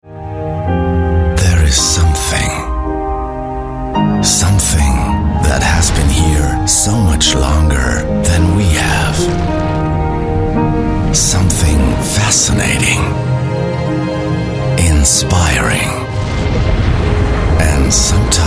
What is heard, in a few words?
englisch; SEA Award